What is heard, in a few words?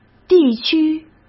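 "地区", Mandarin Chinese; chinese flashcards; hsk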